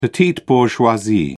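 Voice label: "petite bourgeoisie", English, British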